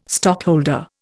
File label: "stockholder", Indian